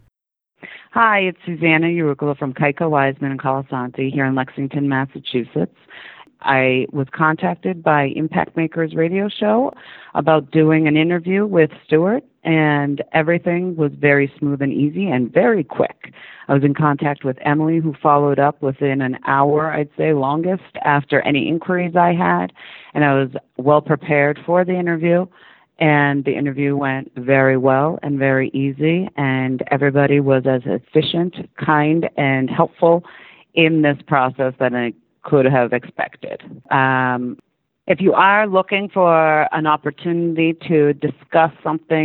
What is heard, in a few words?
Testimonial; Impact Makers Radio